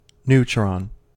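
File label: "neutron", US English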